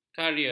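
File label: "karier", Malay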